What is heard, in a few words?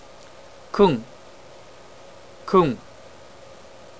diminutive male; japanese